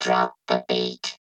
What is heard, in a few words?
Robot Voice Drop The Beat